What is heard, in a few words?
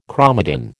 "chromatin", American